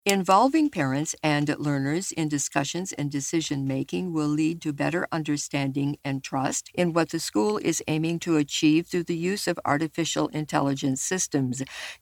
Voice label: EN-US trial text